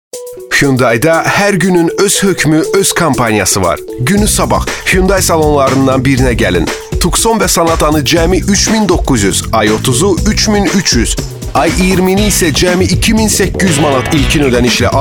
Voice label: azerbaijani male

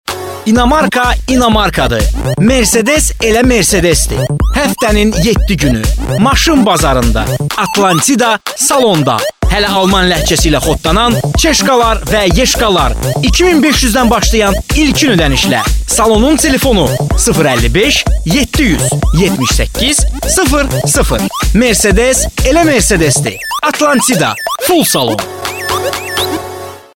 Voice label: Azerbaijani male; dictor; dictor-sounds

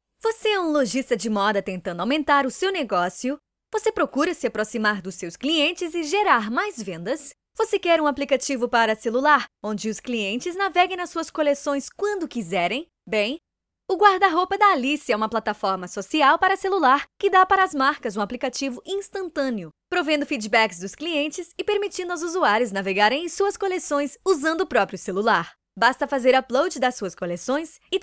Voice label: portuguese brazilian female; dictor; dictor-sounds

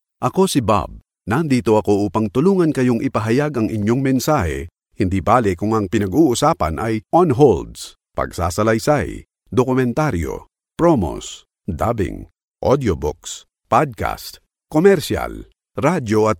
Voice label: tagalog male; dictor-sounds

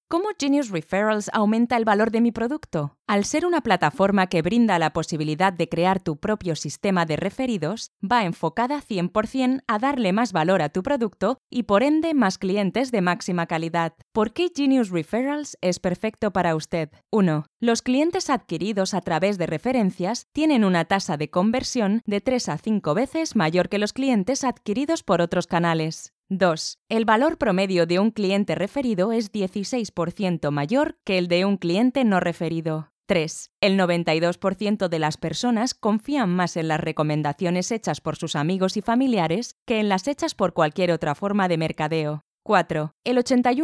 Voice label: spanish european female

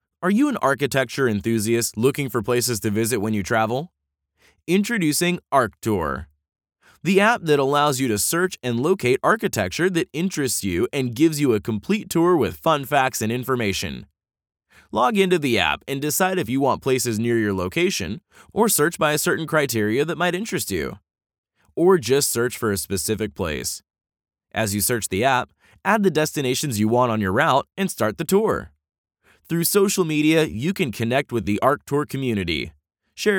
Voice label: american male

